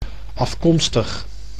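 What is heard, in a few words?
"afkomstig", Dutch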